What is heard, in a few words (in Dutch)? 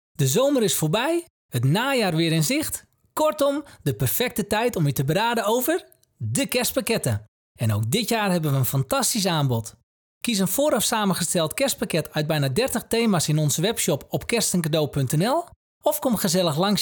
Voiceover commercial